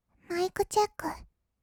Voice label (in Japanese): "マイクチェック", kawaii voice; ASMR; 色々なワンショット; 1200 kawaii voice!!